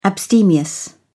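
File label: "abstemious", British English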